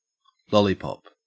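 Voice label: "lollipop", Australian English; pronunciations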